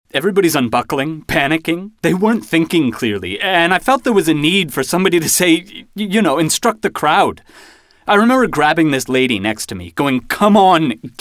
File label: Character